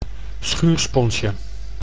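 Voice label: "schuursponsje", Dutch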